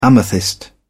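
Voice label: "amethyst", English, British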